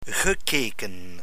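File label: "gekeken", Dutch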